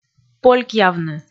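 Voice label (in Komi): "полькъявны", Komi, female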